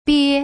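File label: "bie", Putonghua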